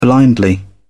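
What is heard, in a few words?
"blindly", British English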